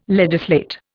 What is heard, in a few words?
"legislate", Indian